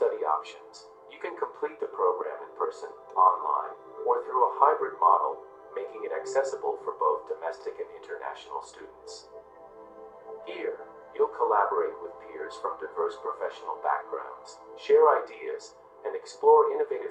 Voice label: Healthcare Voice and Music